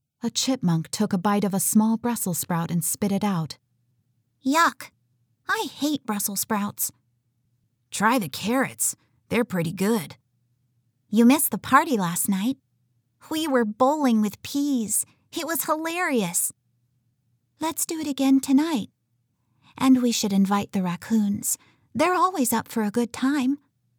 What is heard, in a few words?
Childrens Fic